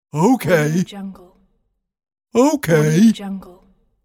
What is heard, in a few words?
cartoon male okay